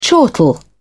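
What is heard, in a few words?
"chortle", British English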